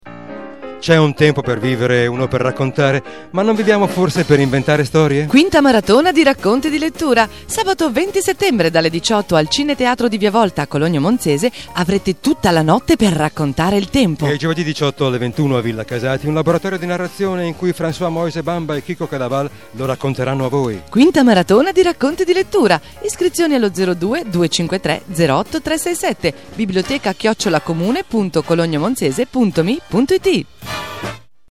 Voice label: spot